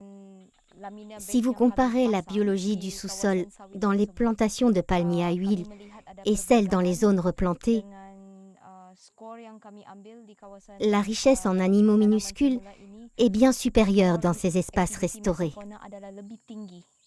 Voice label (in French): VO femme scientifique film; voix-off; Arte; Borneo